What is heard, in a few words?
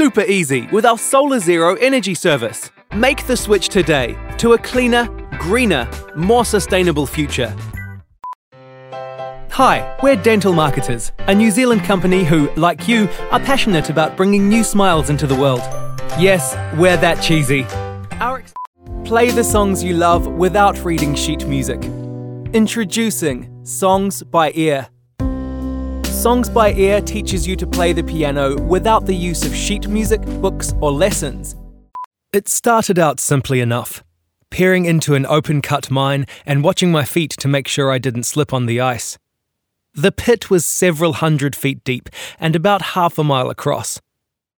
Power Voice